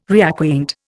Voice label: "reacquaint", Indian